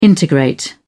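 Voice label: "integrate", British English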